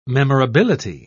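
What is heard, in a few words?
"memorability", British English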